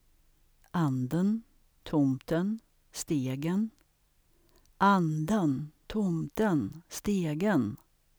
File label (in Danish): Svenske ordtoner akcent 1 og akcent 2